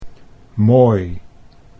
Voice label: "mooi", Dutch

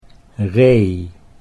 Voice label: "ree", Dutch

